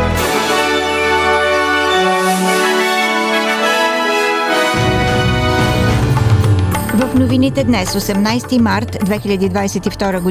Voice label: bulgarian, SBS Radio Bulgarian News; Weekly Bulgarian News – 18th March 2022